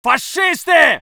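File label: voice soviet infantry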